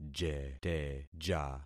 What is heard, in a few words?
"jeteja", concat syllables; Affixing experiment